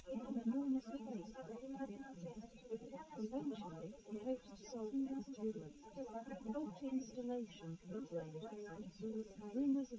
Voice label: female liverec; SiSEC11; underdetermined

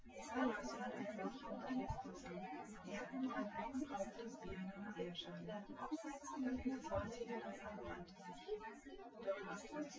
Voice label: female synth conv 250ms 5cm sim; SiSEC08; SiSEC underdetermined

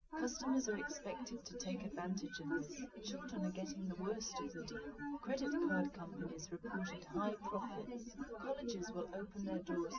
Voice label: test female liverec 250ms 1m src

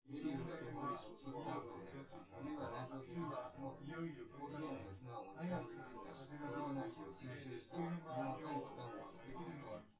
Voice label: male synth conv

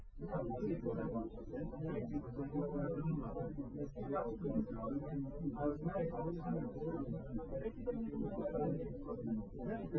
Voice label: male liverec 250ms 5cm

